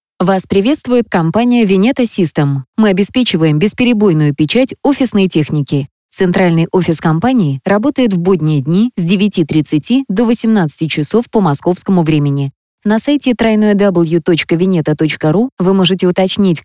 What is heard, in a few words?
IVR; VenetaSys